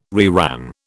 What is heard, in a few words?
"reran", British